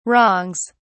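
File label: "wrongs", English, British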